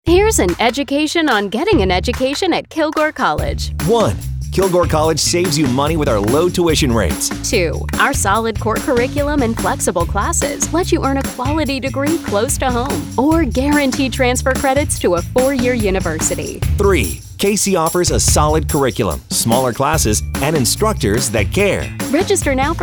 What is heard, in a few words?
Radio Spot